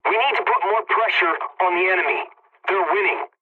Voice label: pilot tickets lead continue enemy, english; War Thunder